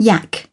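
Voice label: "x-ray" British English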